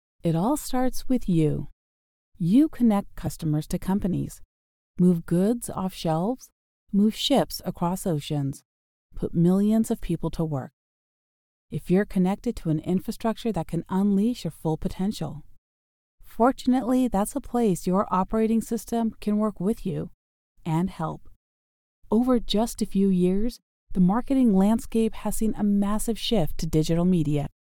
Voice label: female american voice over narrative; english american